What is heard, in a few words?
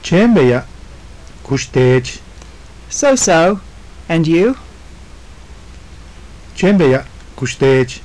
conversation, maya; Chéen beya', kux teech?; So so, and you?; JUNP'IIT MAYA